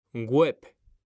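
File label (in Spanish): "WEB", Spanish, male; mekanta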